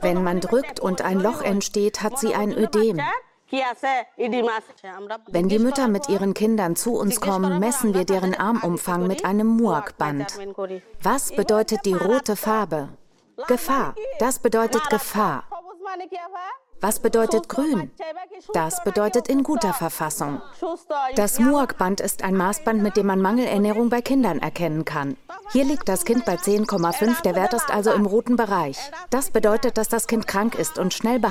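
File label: german documentaries, Female; professional German voiceover artist and voice actor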